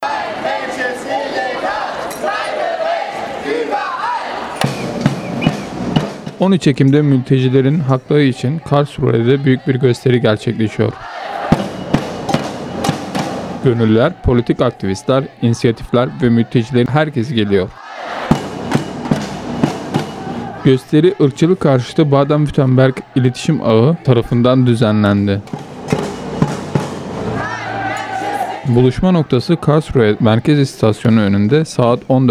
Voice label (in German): Aufruf Tuerkisch, Sprachnachrichten